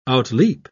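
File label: "outleap", British English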